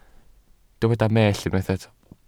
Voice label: "mellt", welsh